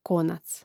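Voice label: "konac", Croatian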